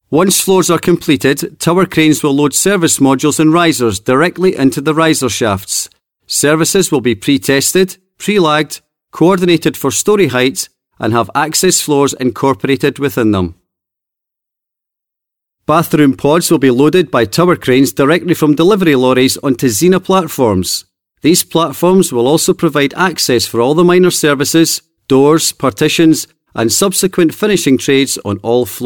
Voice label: Presentation